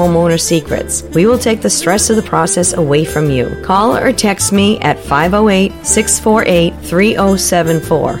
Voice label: Real Estate Door 60 Sec Ad Spot